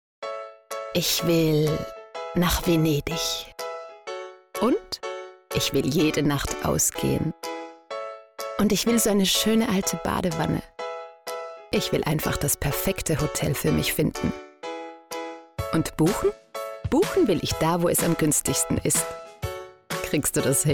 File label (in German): Frau OFF